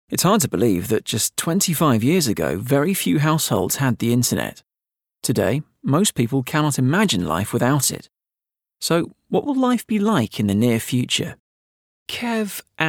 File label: E LEARNING